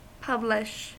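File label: "publish", American English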